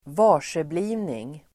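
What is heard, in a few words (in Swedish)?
"varseblivning", Swedish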